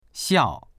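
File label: "xiao4", Mandarin Chinese; 笑; 笑う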